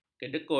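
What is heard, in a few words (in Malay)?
"kedekut", Malay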